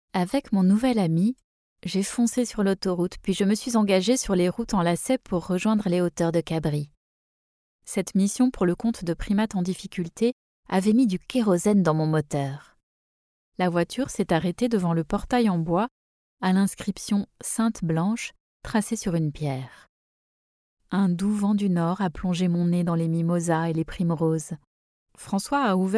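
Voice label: French, France, female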